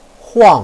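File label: "huang4", Mandarin Chinese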